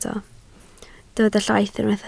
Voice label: "llaith", Welsh